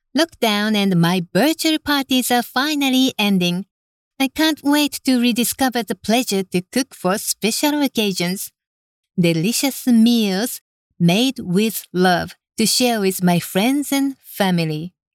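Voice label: Japanese, female, English, Hotel; Rayos Hotel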